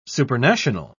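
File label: "supernational", English, British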